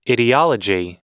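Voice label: "ideology", English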